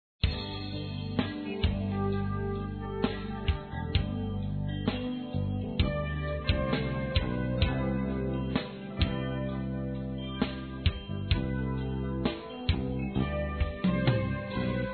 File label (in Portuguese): Convite Amiga Masculino; Telemensagens; Convites e Agradecimentos; Telemensagens 2017